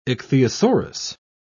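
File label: "ichthyosaurus", British English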